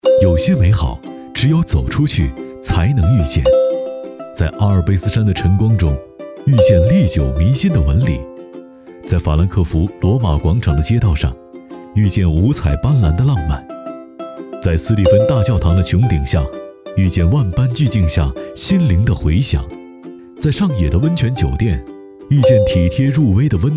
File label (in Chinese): 走心广告活力轻松朗润; 进口整装